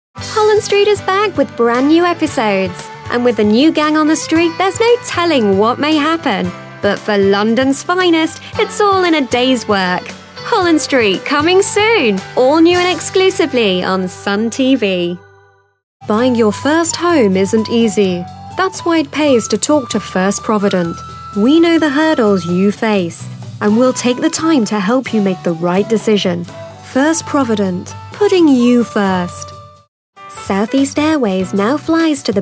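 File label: British English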